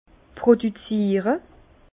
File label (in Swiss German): "produziere", Bas Rhin; vin; produire